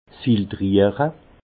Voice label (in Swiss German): "sfiltriere", alsace; vin; filtrage